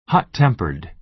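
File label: "hot-tempered", American English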